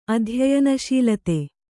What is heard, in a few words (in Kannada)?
"ಅಧ್ಯಯನಶೀಲತೆ", Kannada